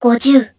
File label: Vox Casting jp; Kara; kingsraid